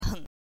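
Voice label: "heng", Mandarin Chinese, neutral tone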